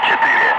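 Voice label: Russian speech, Ka-50 Wingman; Digits; DCS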